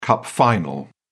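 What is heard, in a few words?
"cup final", British English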